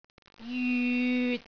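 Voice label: "jyut6", Cantonese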